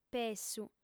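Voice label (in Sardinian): "pessu", female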